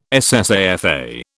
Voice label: "SSAFA", British